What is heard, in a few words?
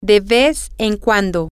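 once in a while, Spanish, female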